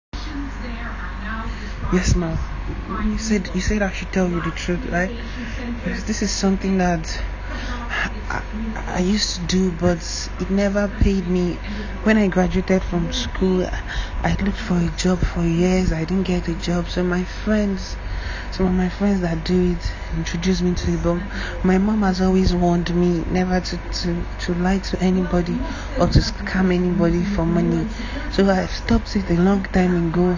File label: audio Message